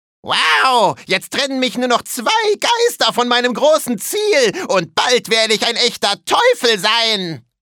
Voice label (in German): comic